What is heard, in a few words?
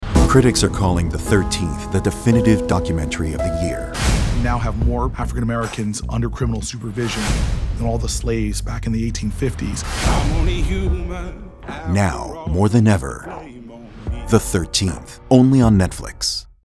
promo; THE THIRTEENTH